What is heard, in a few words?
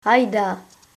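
help, Lingua Franca Nova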